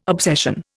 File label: "obsession", Indian